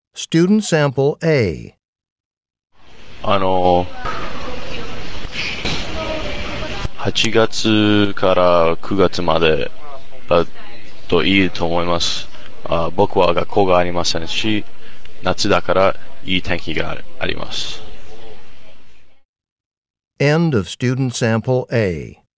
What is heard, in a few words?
japanese conversation; Conversation 2 Sample A (Score of 5)